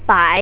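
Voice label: "BAI", Chinese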